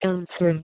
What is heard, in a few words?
"anthera", Proto Germanic